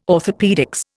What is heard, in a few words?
"orthopaedics", Indian